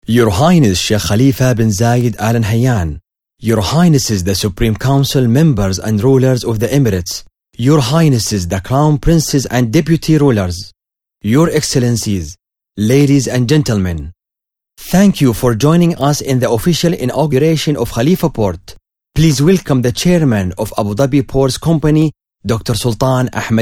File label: To Intoduce The Speakers, English; Khalifa Port